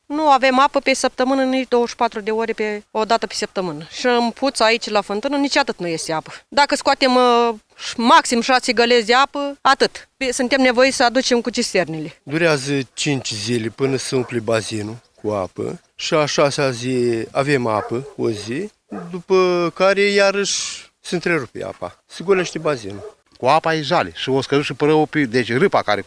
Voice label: vox pop